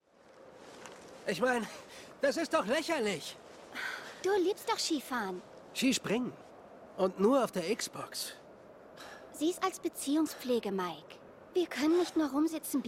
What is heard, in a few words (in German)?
synchron demo